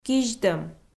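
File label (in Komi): "кизьтӧм", Komi, female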